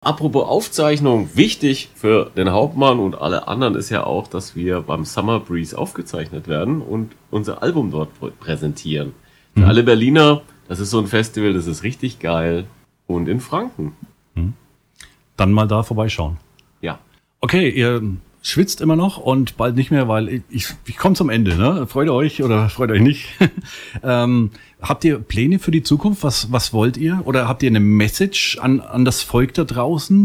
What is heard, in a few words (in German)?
Ansage Prinzessin